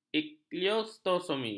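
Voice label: "ileostomi", Malay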